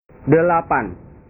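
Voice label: "delapan", Indonesian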